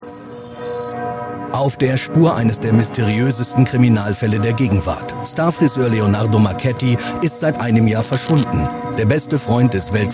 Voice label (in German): DOKU; Professioneller Sprecher; ARD; INFANTINOS FRISEUR